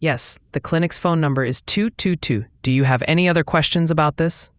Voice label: question english